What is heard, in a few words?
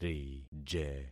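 "rije", concatenated syllables; Affixing experiment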